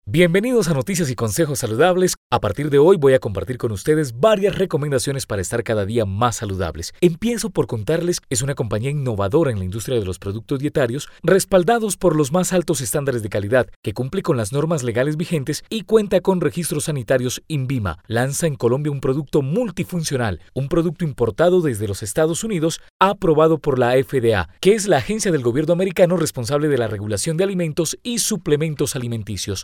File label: Infomercial